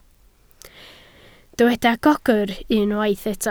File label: "gogr", Welsh